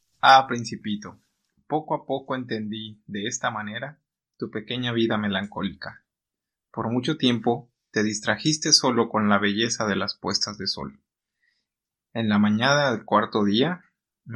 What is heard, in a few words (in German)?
Spanisch, Ciudad Guzman